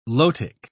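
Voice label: "lotic", British English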